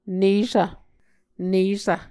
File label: "nesv", Muscogee